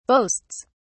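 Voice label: "boasts", British English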